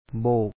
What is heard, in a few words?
"bo3ok", Lao; to sway a hand; ໂບກ